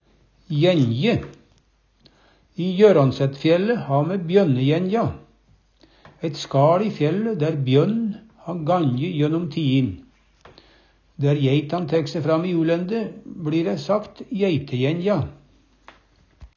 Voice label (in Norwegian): jænje, Numedalsmål